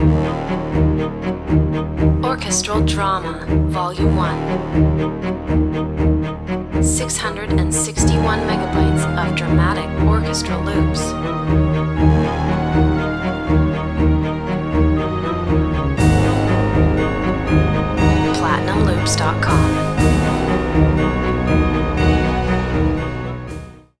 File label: VO ducking example